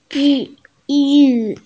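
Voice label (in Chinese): "zhi4yu4", Mandarin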